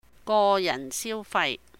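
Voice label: "Go Yahn Siu Fai", Cantonese; individual consumption; 個人消費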